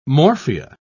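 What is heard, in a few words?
"morphia", British English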